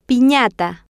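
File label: "pinata", female, Spanish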